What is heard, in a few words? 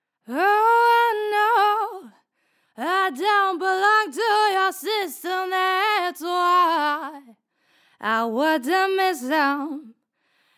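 pure tube female vox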